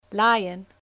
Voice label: "Lion", English

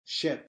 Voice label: "ship", English spelling

